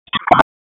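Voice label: Raleigh Police North District Tactical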